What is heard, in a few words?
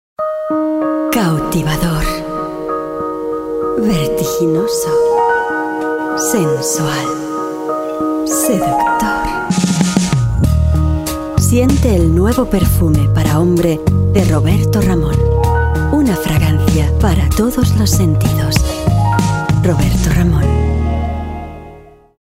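Spanish, Werbung, Sanft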